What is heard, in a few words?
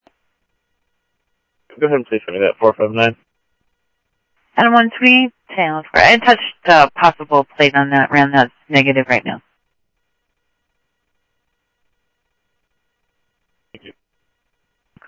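Sheriff East County, scanner audio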